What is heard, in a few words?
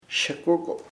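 moon luna, Chamacoco word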